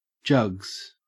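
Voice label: "jugs", Australian English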